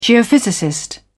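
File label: "geophysicist", British English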